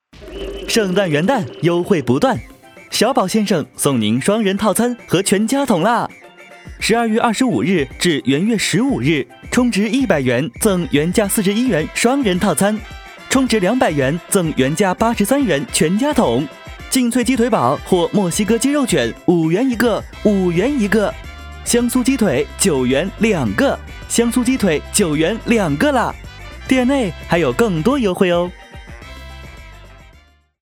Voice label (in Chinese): 男 餐饮店铺活动（年轻）